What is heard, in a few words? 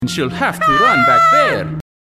run back there scream